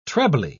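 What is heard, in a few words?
"trebly", British English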